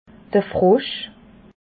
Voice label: "frosch", alsace

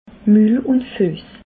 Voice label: "mulunfuess", alsace

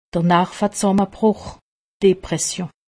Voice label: "depression", alsace; medecine

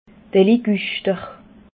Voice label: "liguschter", alsace

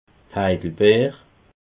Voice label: "heidelbeer", alsace

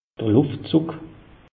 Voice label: "luft zugg", alsace; meteo

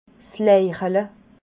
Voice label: "leichele", alsace

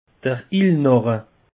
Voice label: "illnache", alsace; peche

